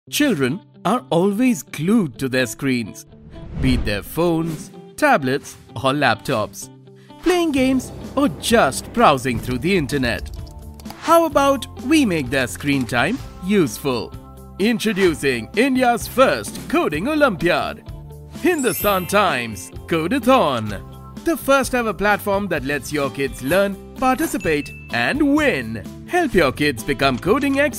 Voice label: explainer ENGLISH

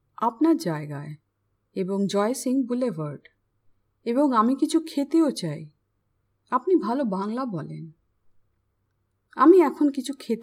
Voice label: Bengali Language Lesson

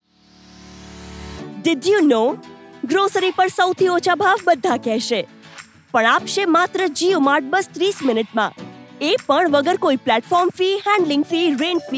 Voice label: Guj; JioMart

